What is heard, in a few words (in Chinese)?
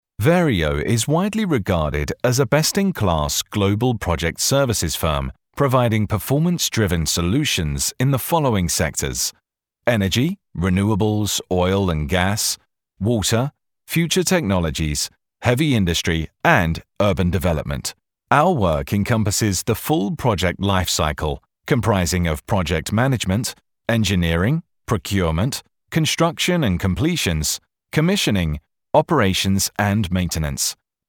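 外籍男声 美式英语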